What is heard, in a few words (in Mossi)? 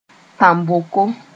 "tãn-boko", moore